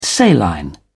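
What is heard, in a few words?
"saline", British English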